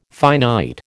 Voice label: "finite", American

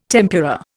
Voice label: "tempura", Indian

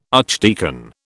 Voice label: "archdeacon", British

